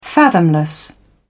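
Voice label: "fathomless", British English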